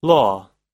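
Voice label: "law", US English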